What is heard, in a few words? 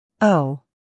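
"o", American English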